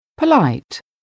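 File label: "polite", English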